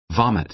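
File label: "vomit", American English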